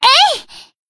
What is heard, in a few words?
Battle Shout; Asuna